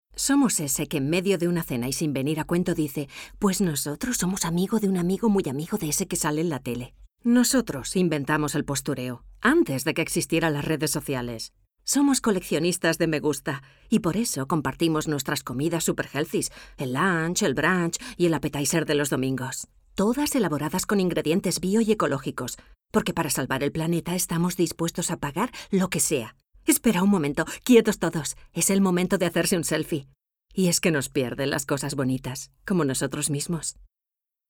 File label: female spanish coffee comercial; ideasonora